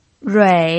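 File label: "rui" Mandarin Chinese, third tone, pinyin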